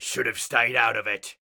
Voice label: Pirate Elite Male Alert Line; NPC; dialogue; Heist Thug Spotted